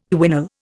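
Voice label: "quinol", Indian English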